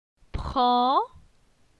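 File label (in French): "Pran", French; phonetique; la syllabe an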